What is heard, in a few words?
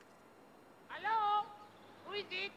french hello